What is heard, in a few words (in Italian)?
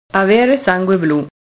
"avere sangue blu", italian